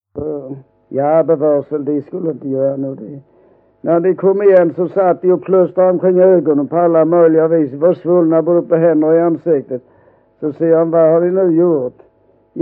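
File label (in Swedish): Vollsjö Skåne, dialekter; Folkminnen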